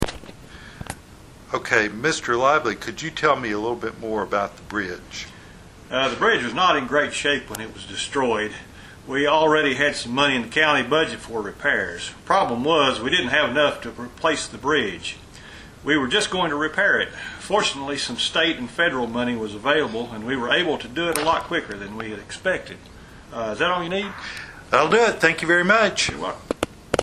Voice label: bridge interview